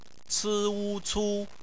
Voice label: "cu", Chinese; phonetics; bpmf

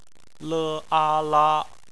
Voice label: "la", Chinese; phonetics; bpmf

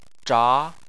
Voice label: "zha2", Chinese

